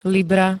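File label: "libra", Slovak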